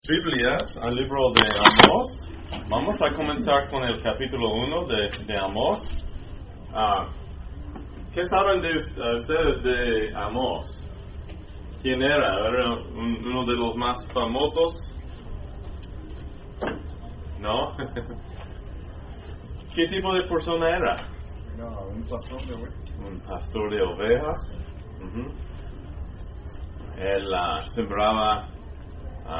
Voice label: Spanish; Minor Prophets Amos Part 1